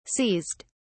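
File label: "seized", British English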